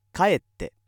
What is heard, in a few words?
male